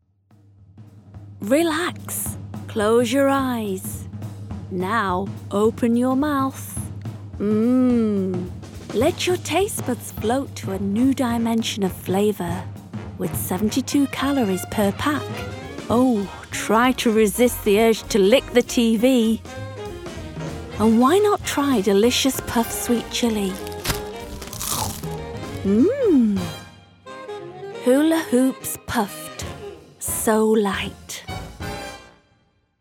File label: Commercial; Hula Hoops Puft